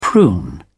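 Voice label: "prune", British English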